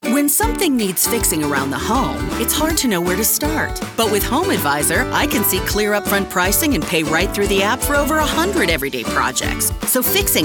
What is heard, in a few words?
Commercial